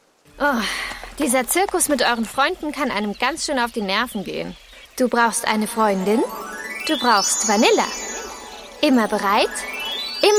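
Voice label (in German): Hörspiel; daseinhornparadies europa; Muttersprache Deutsch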